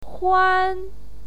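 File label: "huan", Mandarin Chinese pinyin, first tone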